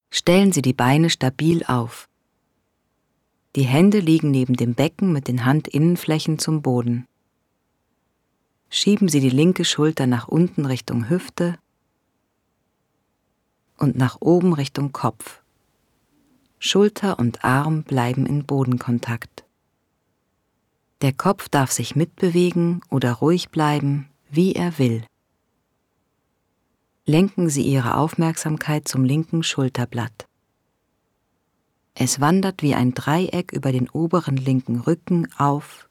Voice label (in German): Hoerbuch Gesundheit Feldenkrais